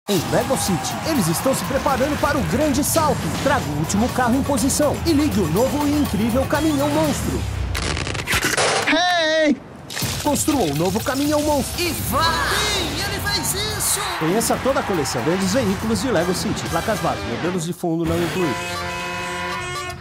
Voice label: locutor; LEGO CITY

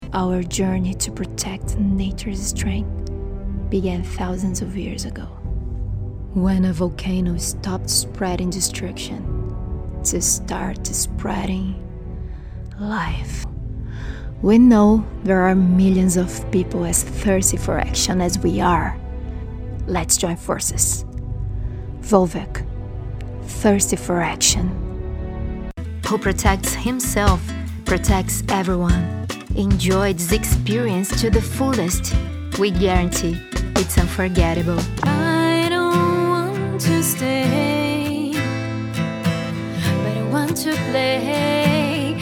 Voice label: Fragmentos Inglês

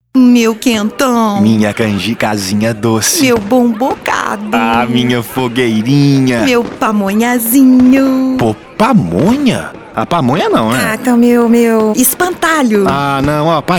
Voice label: Spot Namorados; Mestre Alvaro

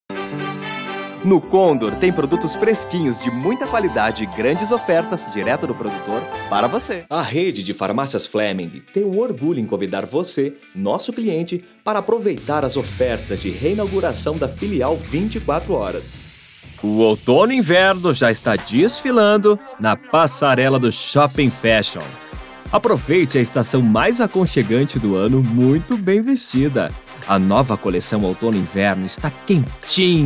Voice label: Voz varejo; Rolo

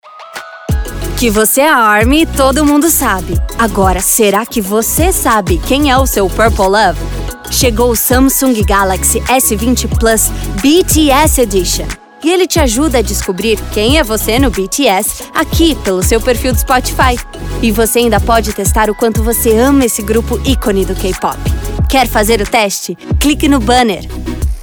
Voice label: spot jovem descolada

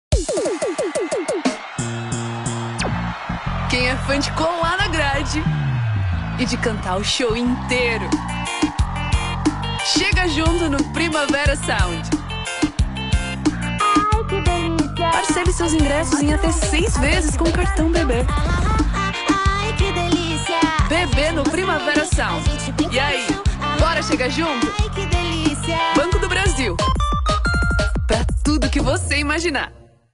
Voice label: Jovem Conversada Animada; Banco do Brasil; Primavera Sound

